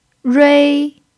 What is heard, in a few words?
"rui1", pinyin